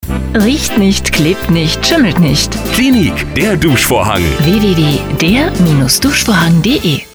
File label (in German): Radiospot; Cleanique Duschvorhang Reminder